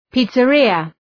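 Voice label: "pizzeria", English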